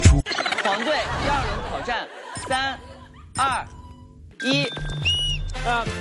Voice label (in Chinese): 倒计时人声和口哨; 跑男游戏